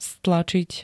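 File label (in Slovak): "stlačiť", Slovak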